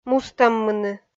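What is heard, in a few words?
"мустӧммыны", Komi, female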